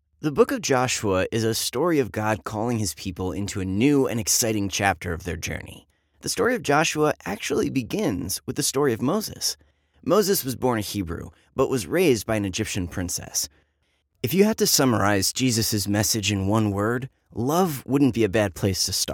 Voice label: religious narration